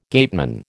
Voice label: "gateman", American